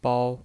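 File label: "bao", Mandarin Chinese, first tone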